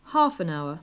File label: "half an hour", English